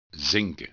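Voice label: "zinken", Dutch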